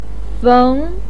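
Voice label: "feng", Mandarin pinyin, tone 2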